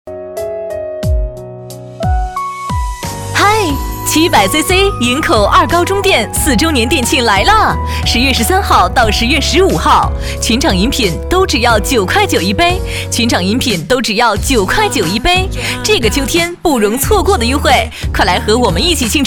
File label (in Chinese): 促销